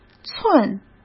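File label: "寸" Mandarin Chinese; chinese-flashcards; hsk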